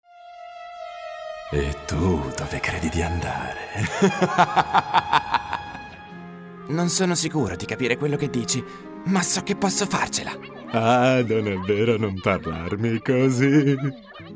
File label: male, Italian, cartoon animation